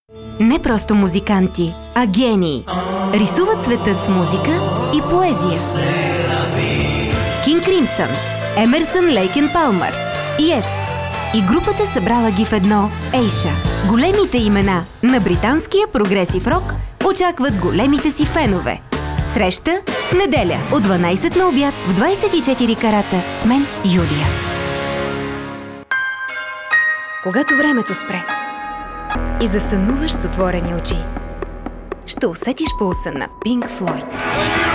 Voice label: radio imaging promos Bulgarian